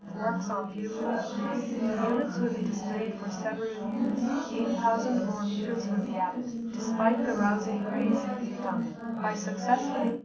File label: female4 380ms 5cm, underdetermined; SiSEC11